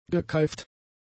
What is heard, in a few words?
"gekeift", German; adjectives